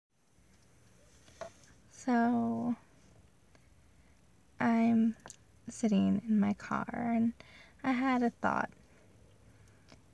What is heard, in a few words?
Edging Ramble